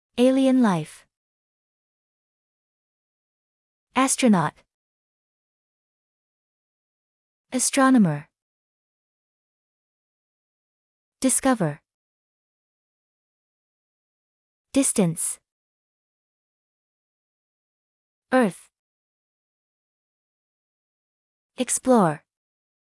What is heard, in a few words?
usa